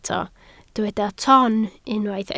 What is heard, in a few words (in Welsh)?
"ton", Welsh